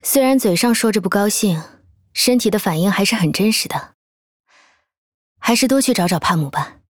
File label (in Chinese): 虽然嘴上说着不高兴，身体的反应还是很真实的。还是多去找找帕姆吧！, 女; Star-Rail; 开拓者(女)